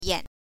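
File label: "yan", Mandarin Chinese, neutral tone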